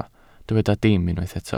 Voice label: "dim", Welsh